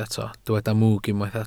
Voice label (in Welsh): "mwg", Welsh